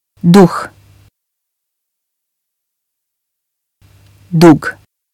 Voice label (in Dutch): "duh", bosnisch; uitspraak; geest